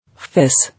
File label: "Fizz", English